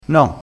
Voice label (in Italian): "granata", crespinese